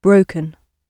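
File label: "broken", British English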